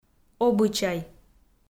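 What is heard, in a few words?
"обычай", Komi, female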